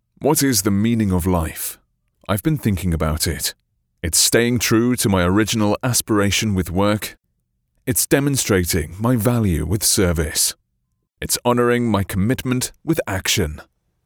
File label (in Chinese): 宣传片 男; 西部证券宣传片